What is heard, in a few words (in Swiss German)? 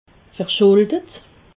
"verschuldet", alsace